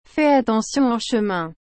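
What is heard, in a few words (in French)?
"Fais attention en chemin.", French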